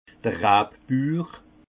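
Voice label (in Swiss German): "rabbur", Alsace Haut Rhin; vin; éleveur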